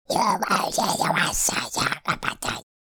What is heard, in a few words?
Alien creature talking